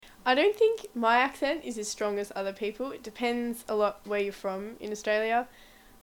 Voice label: Australian English, I don't think my accent is as strong as other people. It depends a lot where you are from